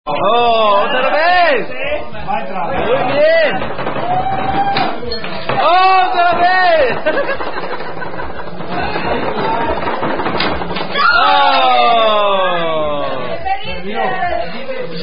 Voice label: German Quarters Drinking Game; Houseparty In Palemro Trinkspiel